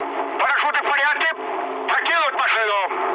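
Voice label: Russian bailout mood med, speech; War Thunder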